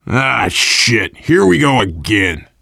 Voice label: generic responses, voice, biker